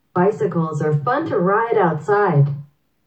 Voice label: bicycles en fast